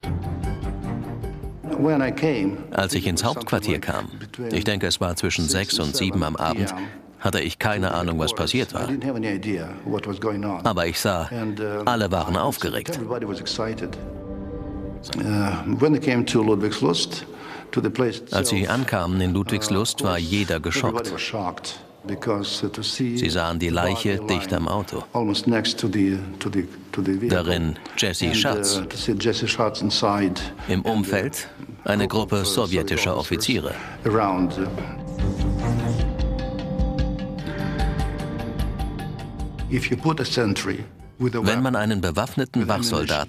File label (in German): doku kalter krieg